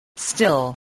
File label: "still", voice